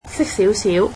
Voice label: cantonese Just a little